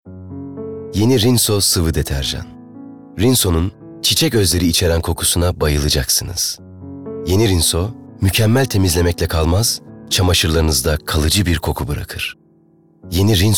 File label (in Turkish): REKLAM